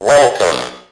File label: robot welcome, Robotic or Stuttery or Spooky, vocals and spoken word